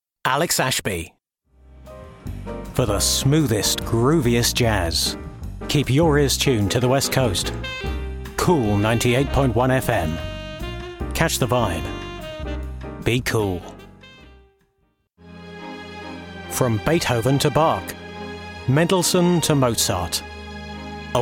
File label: Imaging